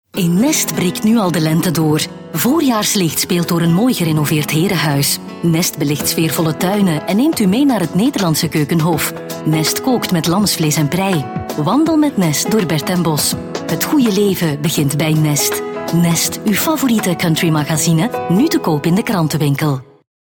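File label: Flemish, Female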